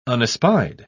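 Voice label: "unespied", British English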